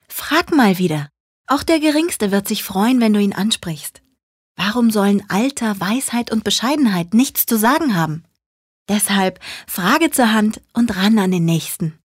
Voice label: Werbung, Sanft

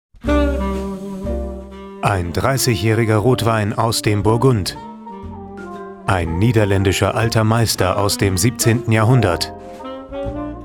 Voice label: Neutral; Fremdtake

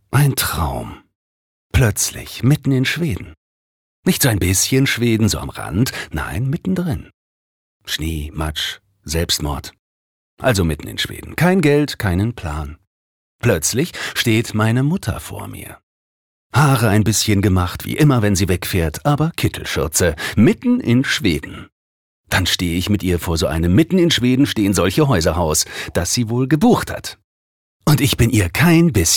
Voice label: Schauspiel, Dynamisch

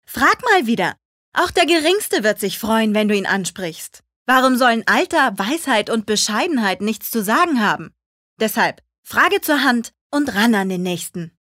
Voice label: Werbung Frech

